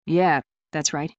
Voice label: fem